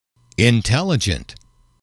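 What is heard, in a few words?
"intelligent", male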